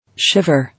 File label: "Shiver", English